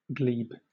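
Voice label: "glebe", British English; pronunciations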